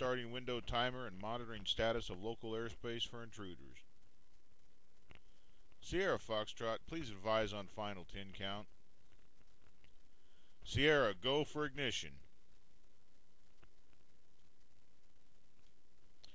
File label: Launch Dialogue Control Voice